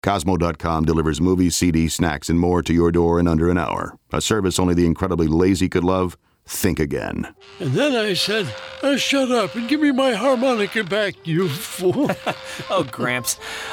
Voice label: Radio; KOZMO Gramps